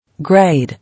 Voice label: "Grade", English

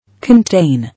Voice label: "Contain", English

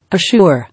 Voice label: "Assure", English

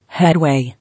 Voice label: "Headway", English

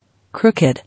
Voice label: "Crooked", English

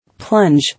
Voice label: "Plunge", English

